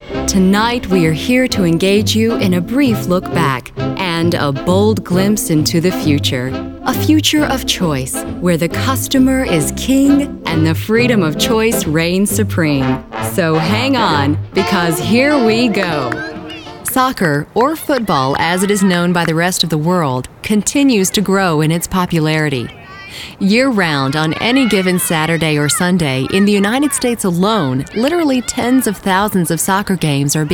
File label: narration